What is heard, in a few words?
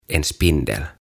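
"En spindel", "Hämähäkki", Swedish, Finnish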